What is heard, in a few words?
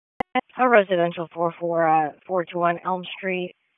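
Raleigh Police Southeast District Dispatch, p25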